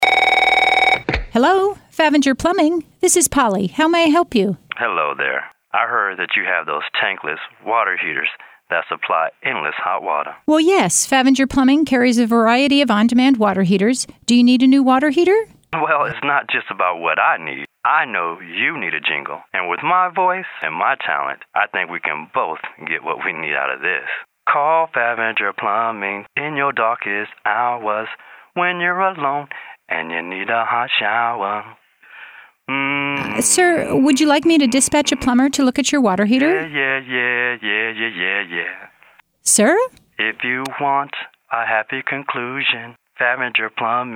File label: radio ad; Favinger